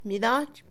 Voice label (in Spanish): poseer, Mixe, Mujer; Movimiento; INALI